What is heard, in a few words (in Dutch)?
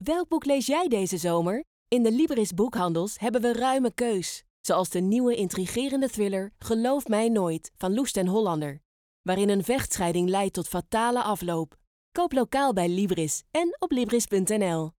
Radiospots, Zonder muziek; Libris; Geloof mij nooit